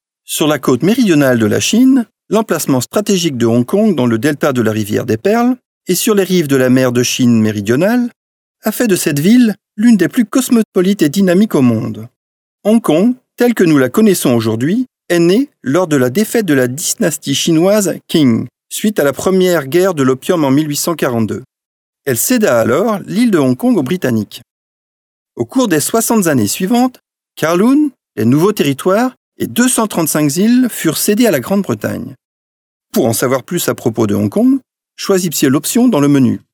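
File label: French male corporate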